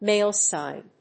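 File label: "male sign", English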